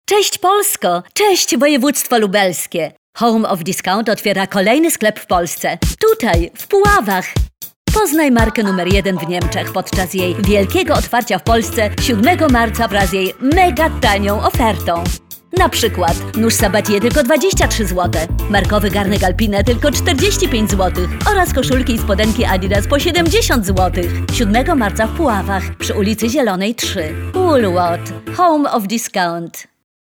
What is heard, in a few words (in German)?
radio werbung polnisch; Woolworth